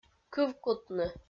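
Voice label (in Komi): "кывкутны", Komi, female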